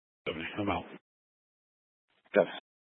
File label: Mesa County Sheriff Dispatch